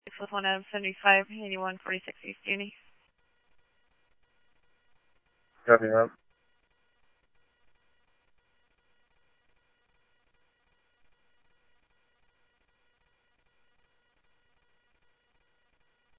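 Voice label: Mesa Police Department, scanner audio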